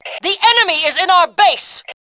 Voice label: enemy, Fem; Tribes2